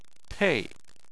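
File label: "pei" fourth tone, Chinese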